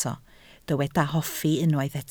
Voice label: "hoffi", Welsh